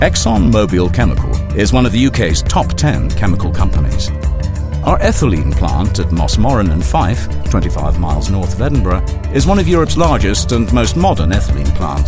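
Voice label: narration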